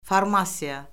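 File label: "formasiya", Azerbaijani; Orfoqrafiya Lüğəti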